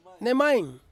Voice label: "nemain", Ansus; fat